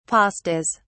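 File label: "pastas", British English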